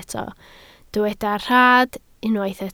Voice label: "rhad", Welsh